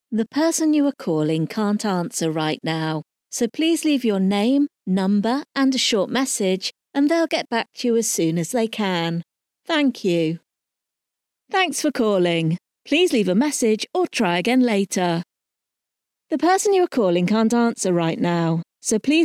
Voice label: Personal Voicemail Montage